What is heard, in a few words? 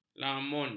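"lamun", Malay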